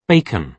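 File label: "bacon", British English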